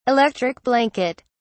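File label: "electric blanket", British English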